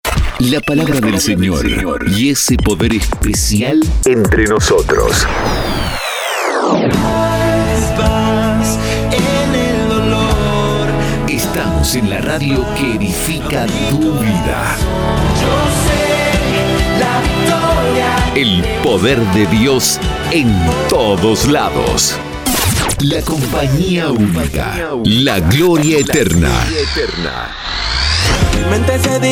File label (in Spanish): ARTE CRISTIANO Pack, Artistica Cristiana en Neutro, Voz masculina; Para vestir tu radio